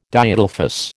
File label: "Diadelphous", American